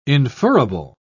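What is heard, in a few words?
"inferable", British English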